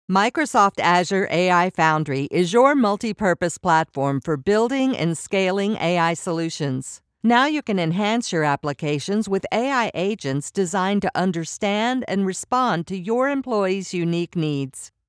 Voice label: Instructor · Technical · Knowledgeable